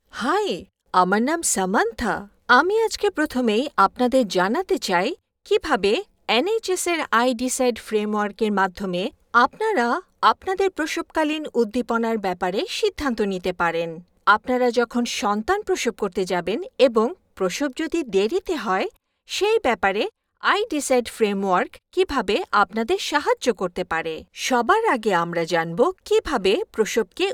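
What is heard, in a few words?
Bengali, female, E Learning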